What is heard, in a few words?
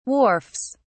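"wharfs", British English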